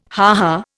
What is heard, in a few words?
"ha ha", Indian